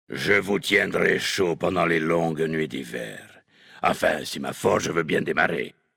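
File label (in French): French Homme Flirt; Tenir chaud; Nain sombrefer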